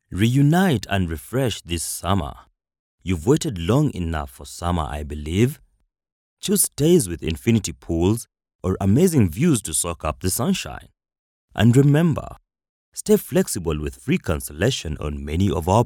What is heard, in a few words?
Swahili English M